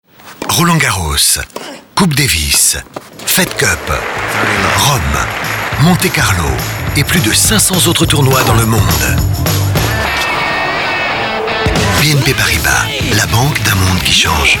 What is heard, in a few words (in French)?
Voix droite; BNP